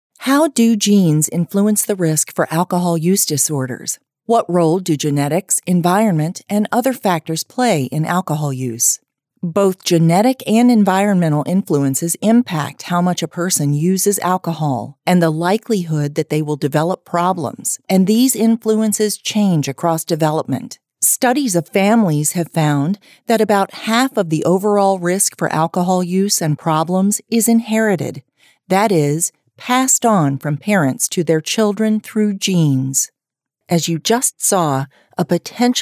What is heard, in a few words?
Explainers